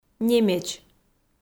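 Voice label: "немеч", Komi, female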